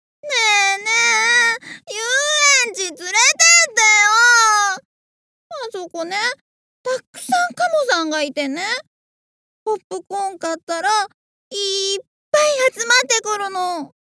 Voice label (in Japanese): 女の子